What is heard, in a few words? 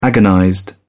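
"agonized", British English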